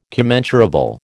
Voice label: "commensurable", American

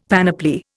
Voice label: "panoply", Indian; Dictionary

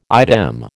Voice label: "idem", American

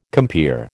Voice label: "compeer", American

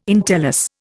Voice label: "entellus", Indian

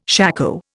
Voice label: "shako", Indian

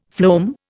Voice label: "phloem", Indian; Dictionary

